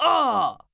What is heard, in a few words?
MALE damage critical